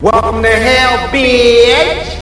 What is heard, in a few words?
welcome to hell bitch echo; kaizo